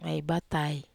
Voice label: "ai batai", wamesa